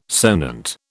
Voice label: "sonant", British